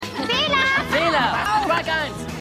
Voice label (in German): Outfielder, Schiedsrichter junge